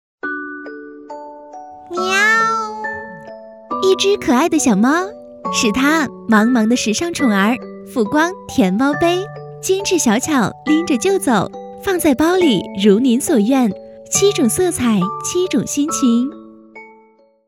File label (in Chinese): 广告活泼可爱